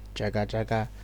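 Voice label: "jagajaga", Nigerian Pidgin, male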